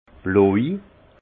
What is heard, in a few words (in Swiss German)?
"bloj", alsace; vin; bleu